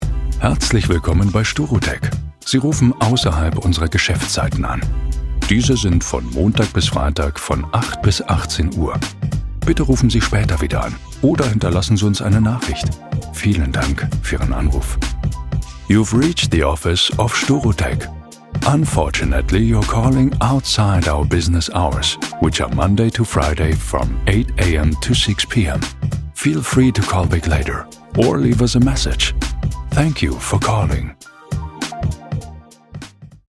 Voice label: Hoerprobe Telefonansage; Sturu Tec

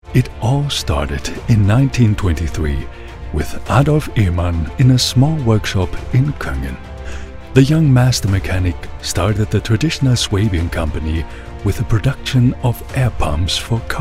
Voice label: Hoerprobe Englisch; ALMIG

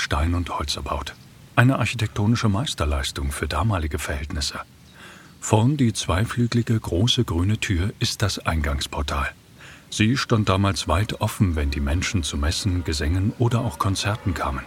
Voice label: Hoerprobe Audioguide Kirche; Utersbacher

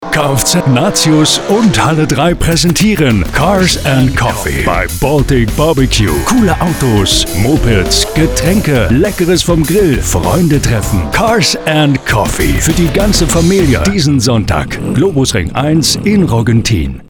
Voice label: Hoerprobe Werbung; Cars and Coffee

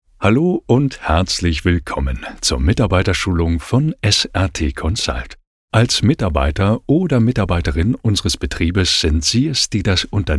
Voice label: Hoerprobe E Learning Schulung; Consult

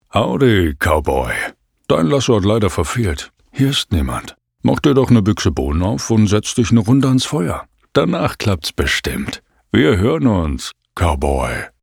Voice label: Hoerprobe Telefonansage Cowboy

